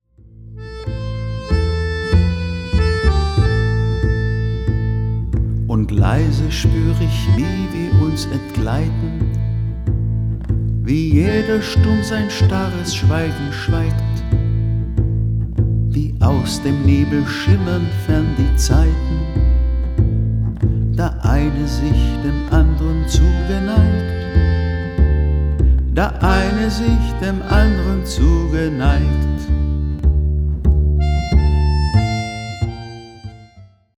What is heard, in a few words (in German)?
Kommentar